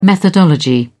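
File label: "methodology", British English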